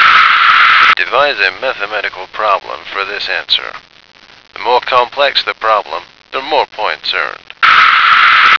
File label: "Devise Mathematical Problem", American